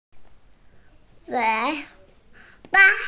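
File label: "wěi ba", Mandarin Chinese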